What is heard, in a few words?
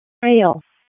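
Wake County EMS ALERT, p25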